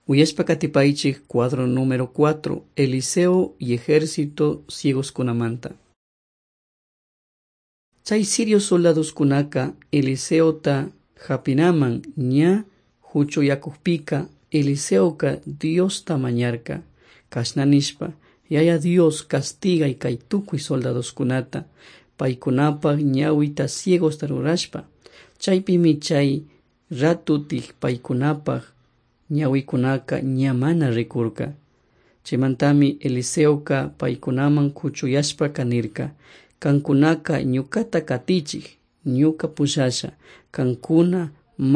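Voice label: quichua chimborazo, drama; on trial for god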